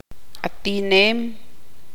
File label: tzutujil; nadar